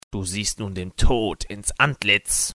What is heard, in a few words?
death, Klingon; deu